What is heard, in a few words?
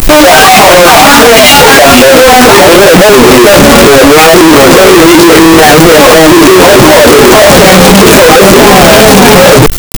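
overlapping people talking; broadcast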